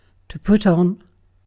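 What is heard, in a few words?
"put on", British English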